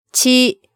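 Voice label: "chi", Japanese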